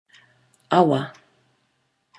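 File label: "awa", Maori; river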